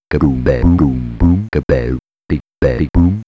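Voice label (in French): Voix captain, du son cartoonesque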